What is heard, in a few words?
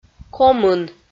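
"комын" Komi, female; audio dict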